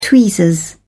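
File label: "tweezers", English, British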